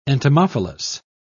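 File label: "entomophilous", English, British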